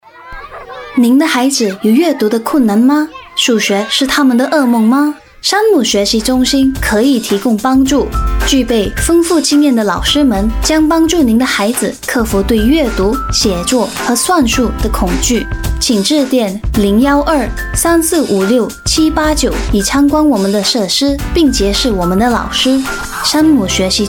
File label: chinese voice over female commercial